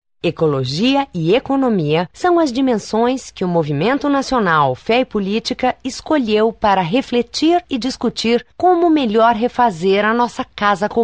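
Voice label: Portuguese Brazil, female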